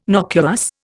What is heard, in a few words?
"nocuous", Indian